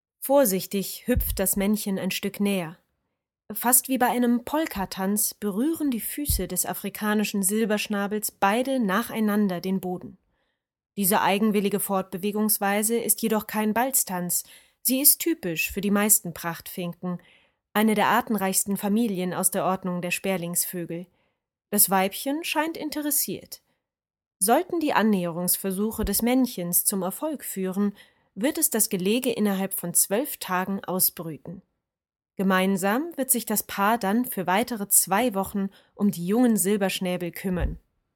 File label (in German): Audio kommentar